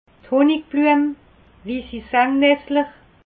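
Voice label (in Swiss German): "hunnibluem", Alsatian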